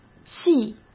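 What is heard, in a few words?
"细", Mandarin Chinese; chinese flashcards; hsk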